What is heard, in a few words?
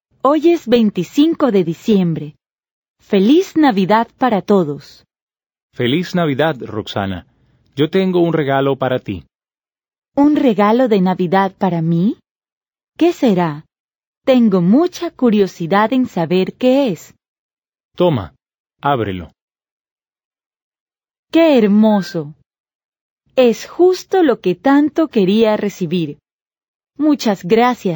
Dialogue, Spanish; Learn Conversational Spanish Now